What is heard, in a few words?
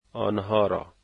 "them" Dari